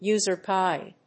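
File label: "User Guide", English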